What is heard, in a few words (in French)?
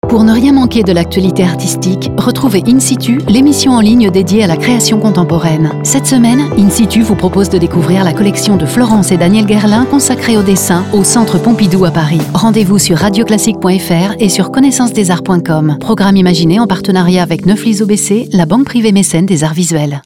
voix off in situ